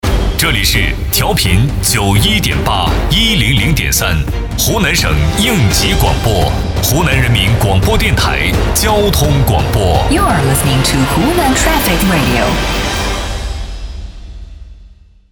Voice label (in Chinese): 男 频道声; 湖南交通频道